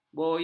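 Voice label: "boyak", Malay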